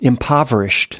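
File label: "impoverished", US English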